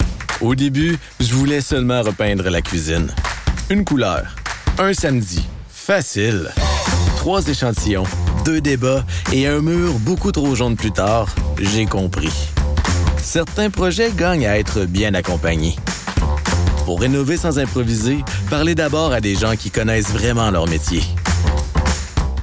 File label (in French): commercial renovation complice, voix-off